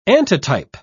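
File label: "antitype", British English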